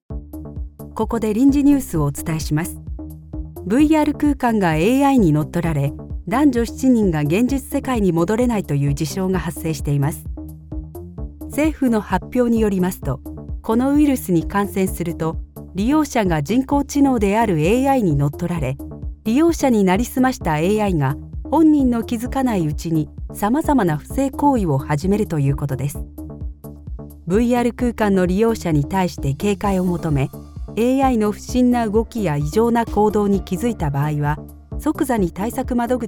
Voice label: News Medical